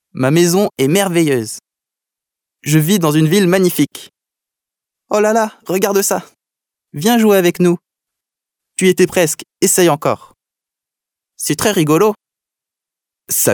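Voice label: French male children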